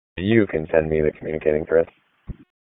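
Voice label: Police Southwest District Dispatch, p25; Raleigh; wake p25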